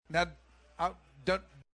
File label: stammer